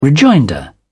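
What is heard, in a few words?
"rejoinder", British English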